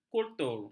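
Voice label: "kultur", Malay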